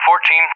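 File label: "14", English, USA; Numbers; DCS; Allied Flight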